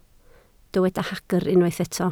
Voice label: "hagr", Welsh

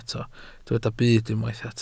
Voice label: "byd", Welsh

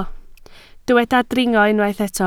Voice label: "dringo", Welsh

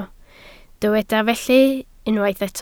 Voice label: "felly", Welsh

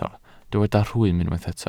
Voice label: "rhwym", Welsh

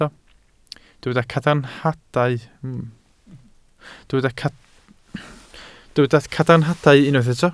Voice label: "cadarnhadau", Welsh

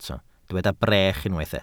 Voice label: "brech", welsh

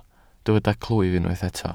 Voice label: "clwyf", Welsh

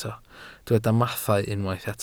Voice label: "mathau", Welsh

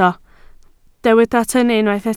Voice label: "tynnu", Welsh

